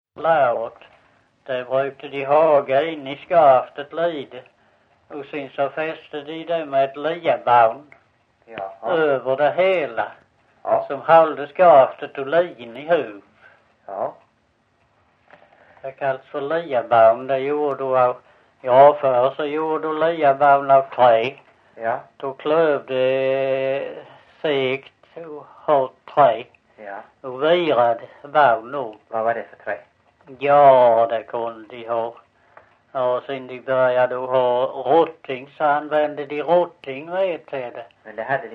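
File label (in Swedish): Visseltofta Skåne, svenska sagor dialekter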